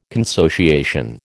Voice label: "consociation", American